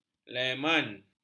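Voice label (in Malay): "lemon", Malay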